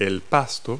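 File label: "grass", English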